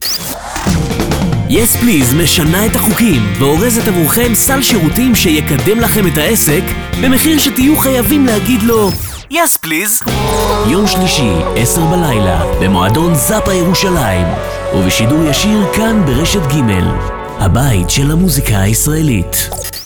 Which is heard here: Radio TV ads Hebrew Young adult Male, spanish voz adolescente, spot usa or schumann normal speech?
Radio TV ads Hebrew Young adult Male